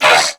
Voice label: Pain, Grineer Male; New War; Narmer